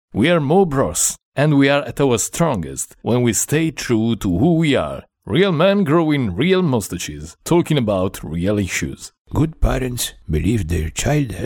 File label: male italian voice over english